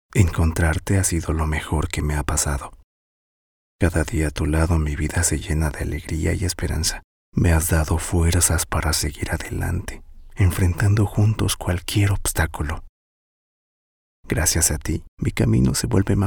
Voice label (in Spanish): cercano amor; Demo comerciales